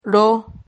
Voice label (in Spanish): "rho", Silabas